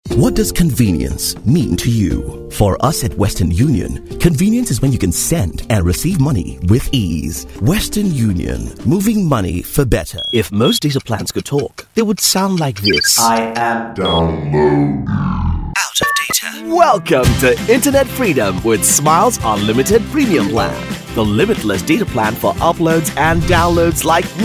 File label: TV commercials